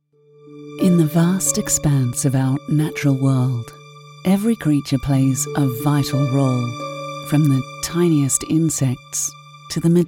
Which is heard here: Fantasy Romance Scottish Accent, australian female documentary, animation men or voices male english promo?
australian female documentary